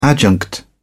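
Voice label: "adjunct", British English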